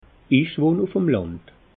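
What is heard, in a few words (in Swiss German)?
"wohn ufm land", Alsatian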